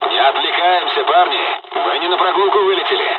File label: dialog, dialogs russian; War Thunder